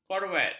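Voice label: "korvet", Malay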